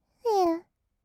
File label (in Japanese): "へう", kawaii voice; ASMR; 色々なワンショット